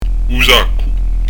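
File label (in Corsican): "u saccu", Corsican; u z'aku; Son "adouci"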